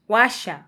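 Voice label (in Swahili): "washa", Kenyan Swahili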